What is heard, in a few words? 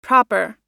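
"proper", American English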